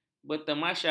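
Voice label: "bertemasya", Malay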